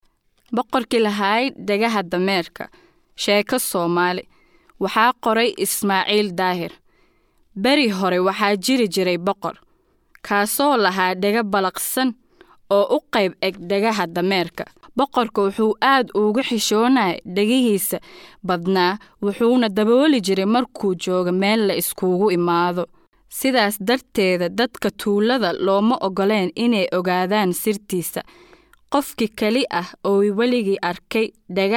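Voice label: SO General, Somali; GoLocalise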